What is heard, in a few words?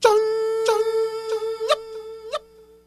"jung ya", voice; ooh ahh